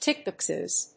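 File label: "tick boxes", English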